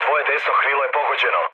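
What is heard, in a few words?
Serbian right wing mood low, speech; War Thunder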